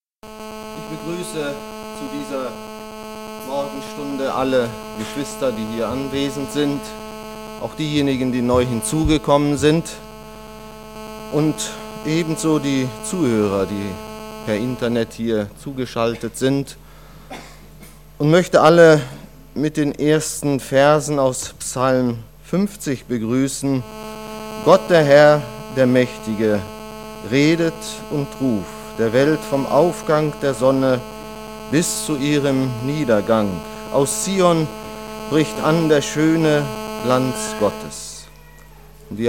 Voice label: Ansage; Christus im Zentrum

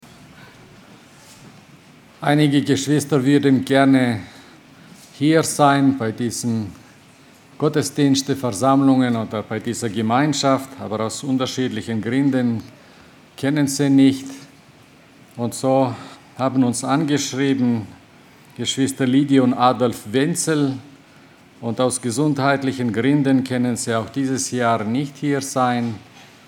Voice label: Ansprache; Was bewirkt die Erlösung

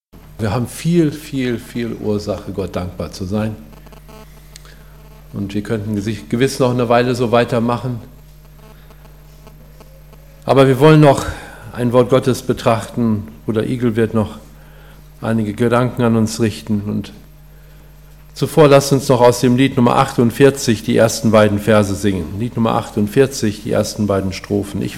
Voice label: Ansage; Die Liebe Jesu an uns

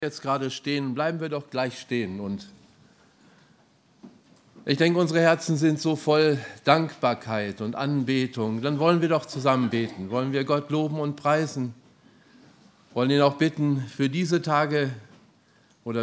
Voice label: Ansprache; Lagerversammlung 2016; Entschiedenheit

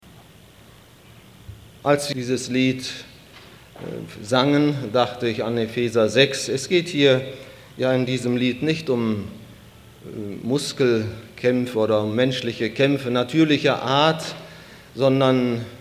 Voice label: Ansage; Die Beziehung zum Heiligen Geist

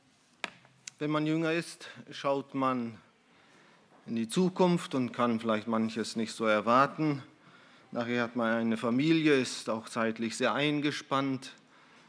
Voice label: Ansage; Alles verlassen und doch gewonnen

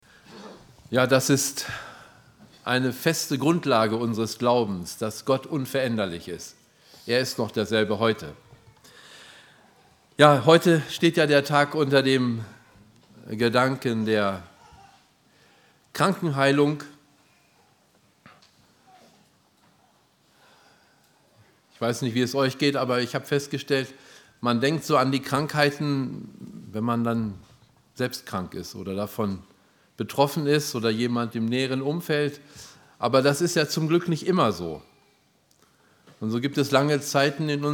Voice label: Ansage; Lagerversammlung 2016; Zeugnisstunde

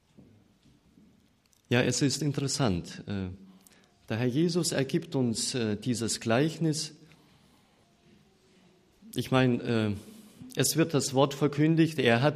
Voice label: Ansage

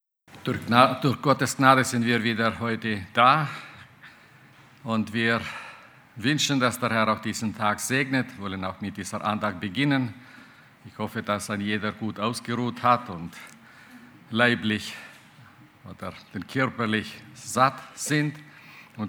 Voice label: Ansage; Lagerversammlung 2018; Anhaltendes Gebet

